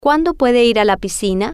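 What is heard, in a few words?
Cuando Puede Ir Piscina, Spanish, female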